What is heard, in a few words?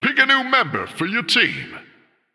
Male Human Hire